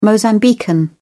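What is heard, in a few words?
"mozambican", British English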